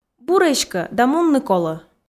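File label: "бур эськӧ да мунны колӧ", Komi, female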